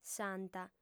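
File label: "santa", female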